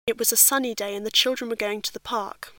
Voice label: clear voice